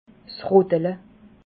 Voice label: "rottele", alsace

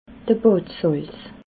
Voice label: "badsalz", alsace

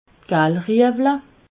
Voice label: "galleriewle", alsace

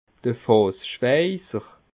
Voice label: "fassschweiser", alsace

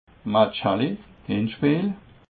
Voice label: "matchaller", alsace

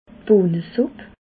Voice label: "bohne supp", alsace

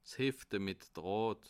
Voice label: "hefte", alsace

